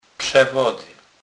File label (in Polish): "przewody", kurpie